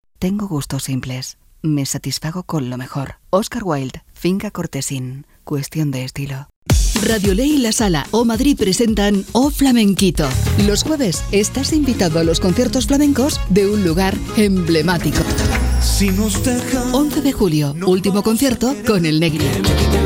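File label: comercial, Spanish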